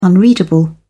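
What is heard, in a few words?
"unreadable", British English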